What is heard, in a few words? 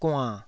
"guann3", taiwanese